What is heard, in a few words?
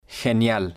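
"genial", Spanish, male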